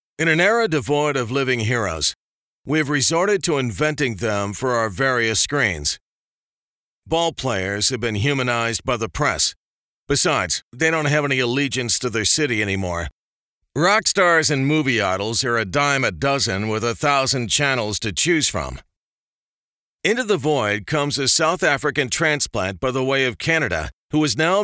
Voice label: audiobooks men biography

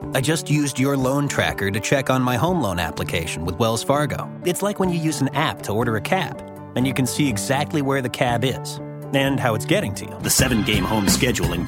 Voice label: commerical men; commercial men

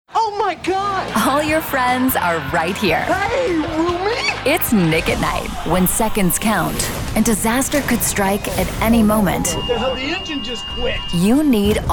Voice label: promo women; promos women

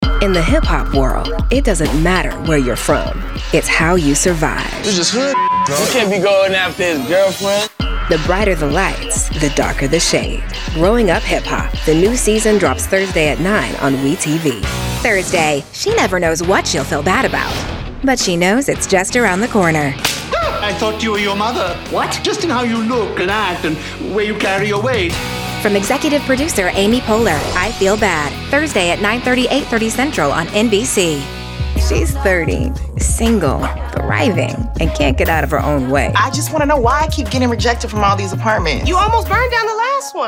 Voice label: promo women

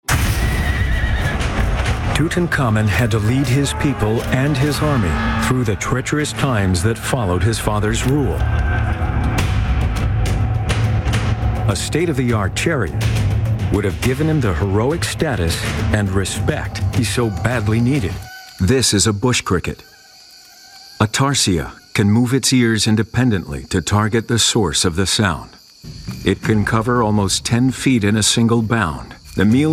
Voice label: narration men

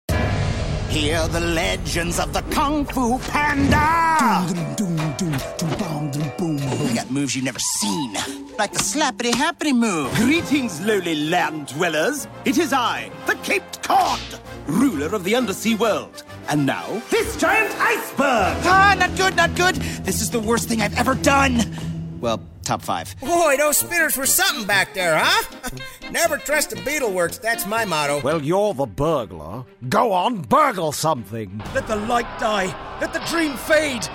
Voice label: animation

